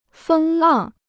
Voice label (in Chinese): "风浪", Chinese